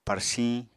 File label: "parsiñ", Apatani